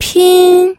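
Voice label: "pin", Mandarin Chinese, first tone; pinyin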